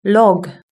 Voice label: "log", british english